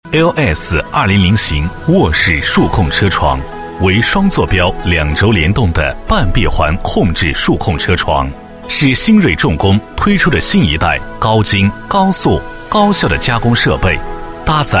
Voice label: 男 产品介绍 重工产品宣传片; 新瑞重工

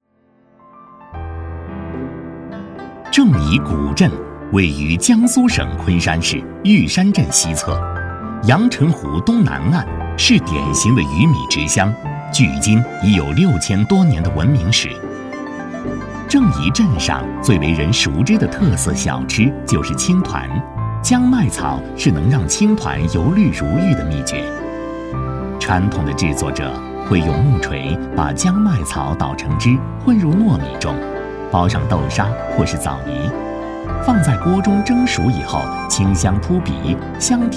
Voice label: 男 仿舌尖; 古镇青团

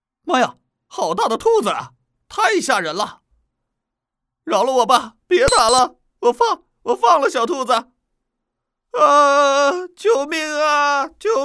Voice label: 男, 角色; 灰太狼

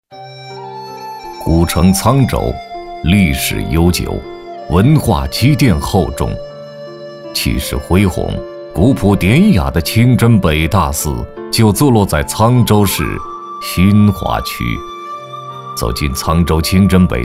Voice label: 男100号-宗教-清真寺 清幽 磁性, 国语男声配音

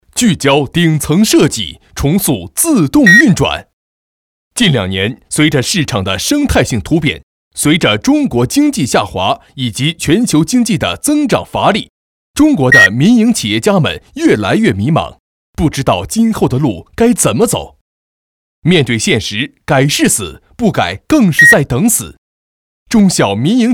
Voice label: 男 广告; 聚焦顶层设计

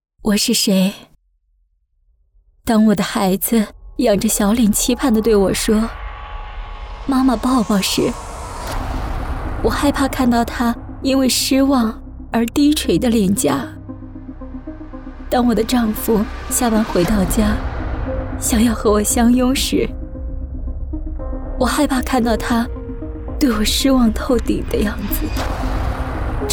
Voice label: 女-自白-深情感染力; 粉碎社交癌

